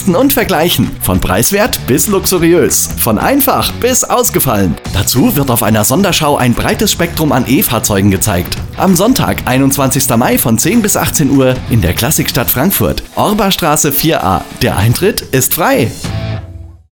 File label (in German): Automobilausstellung Spot; FFM